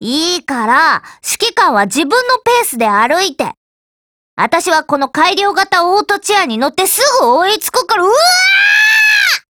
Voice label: DIALOGUE JP